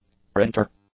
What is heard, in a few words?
"printer", English; nouns